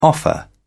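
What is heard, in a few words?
"offer", British English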